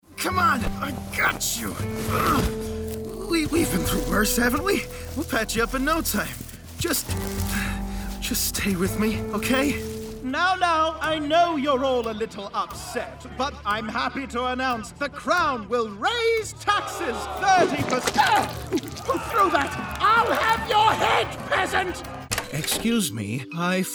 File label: Animation, en-US